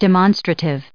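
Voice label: "demonstrative", US English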